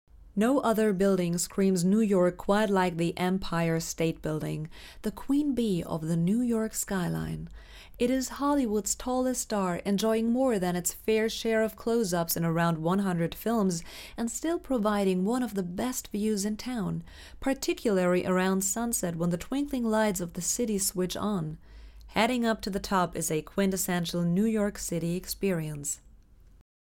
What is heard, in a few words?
US DOCUMENTARY; EMPIRE STATE BUILDING